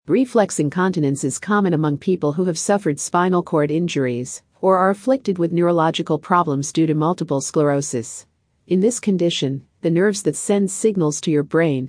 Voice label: Female Audio; Kidney and Bladder Health